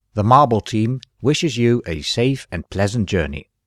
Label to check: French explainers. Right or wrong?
Wrong